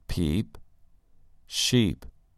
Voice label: "peep" "sheep"